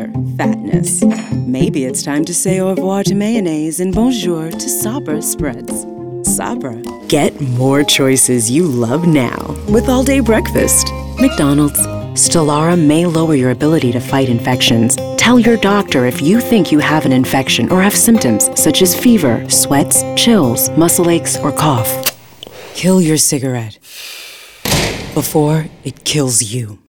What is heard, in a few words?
african american commercial